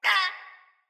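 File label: Pain Corrupted Sprouts, en; Dialog; Battle Barks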